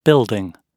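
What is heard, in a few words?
"building", British English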